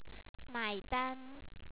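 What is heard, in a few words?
"ma3ida1n", Chinese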